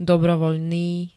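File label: "dobrovoľný", Slovak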